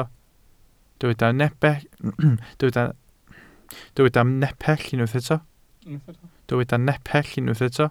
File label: "nepell", welsh